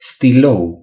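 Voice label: "pen", Romanian; gcompris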